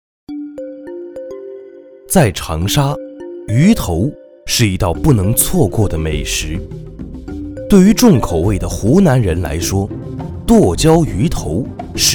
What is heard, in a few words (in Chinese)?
男 湖南剁椒鱼头; 舌尖